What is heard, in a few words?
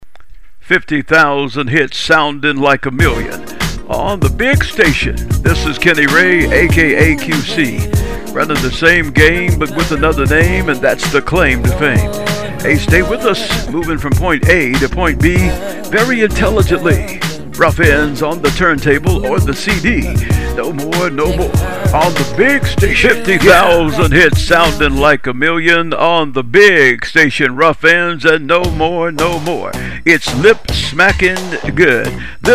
DJ 60 SEC PROMO; OLD SKOOL FIRE; BIG STATION